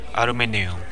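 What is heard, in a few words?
"aluminum", Japanese, male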